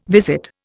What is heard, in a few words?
"visit", Indian